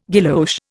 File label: "guilloche", Indian